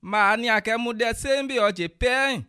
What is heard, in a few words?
pay, bassa